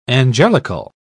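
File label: "angelical", British English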